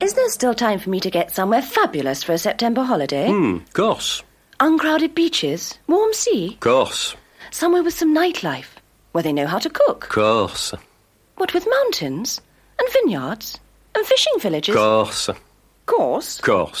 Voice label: Radio Advert, Corsica Holidays; Radio Hallam